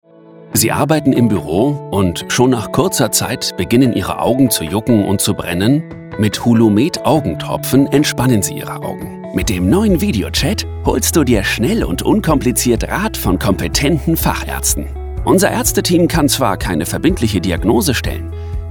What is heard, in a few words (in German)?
erklaervideo gesundheit